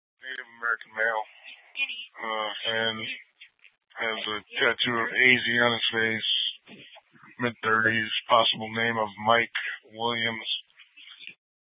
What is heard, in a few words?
Scottsdale PD Sth Dispatch, scanner